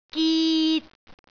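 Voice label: "git3", Cantonese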